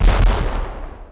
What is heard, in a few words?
hasty fire in the hole; night watch